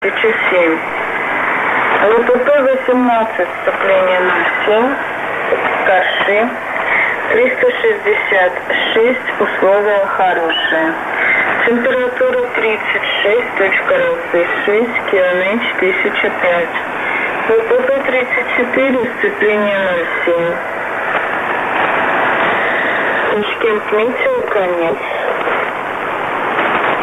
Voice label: tashkent volmet 8819 khz